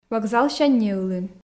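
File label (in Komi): вокзалсянь неылын, Komi, female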